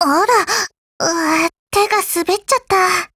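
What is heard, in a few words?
break Japanese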